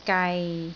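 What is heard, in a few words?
"gai6", Cantonese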